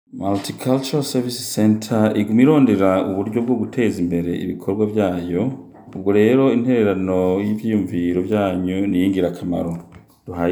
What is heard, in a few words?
feedback Kirundi